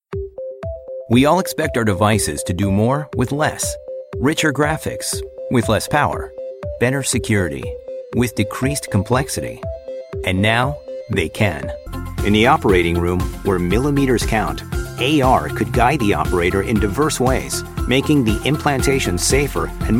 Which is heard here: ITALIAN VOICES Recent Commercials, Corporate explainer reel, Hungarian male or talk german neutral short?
Corporate explainer reel